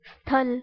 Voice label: "sthal" Hindi